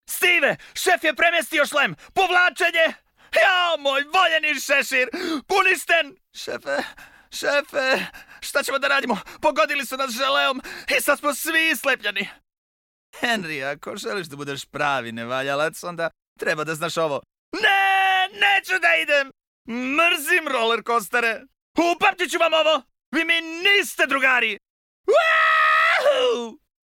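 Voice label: Serbian male character; voice demos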